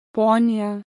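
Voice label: "ponia", Lithuanian, Baltic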